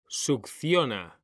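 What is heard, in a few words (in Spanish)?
"succiona", Spanish; mekanta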